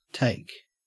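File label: "take", Australian English; pronunciation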